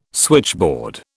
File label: "switchboard", British